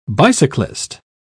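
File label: "bicyclist", British English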